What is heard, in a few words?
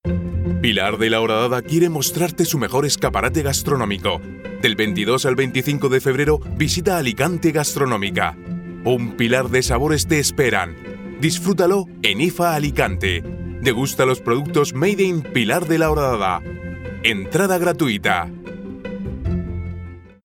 spanish castilian commercial